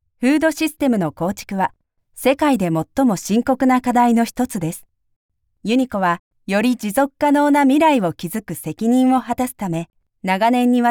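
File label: Japanese female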